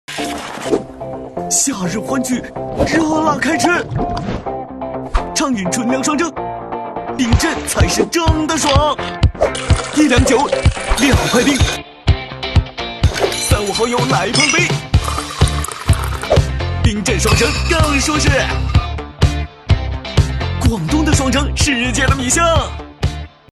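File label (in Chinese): 广告酒; 九江双蒸酒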